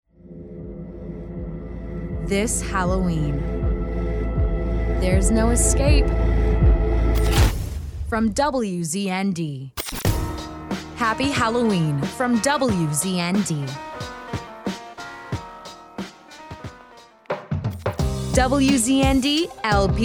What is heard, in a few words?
Station Imaging